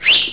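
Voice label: "you", English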